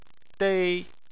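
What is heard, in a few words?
"dei" first tone, Chinese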